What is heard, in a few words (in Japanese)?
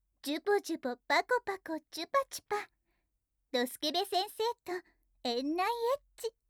タイトルコール; バイノーラルKU100; じゅぽじゅぽ!パコパコ!ちゅぱちゅぱ!ドスケベ先生と園内エッチ♪; 保母さん×おねショタ